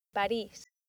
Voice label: "paris", accent; laboratori fonetica; practiques accent